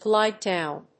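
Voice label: "glide down", English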